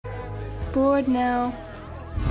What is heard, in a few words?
bored now, english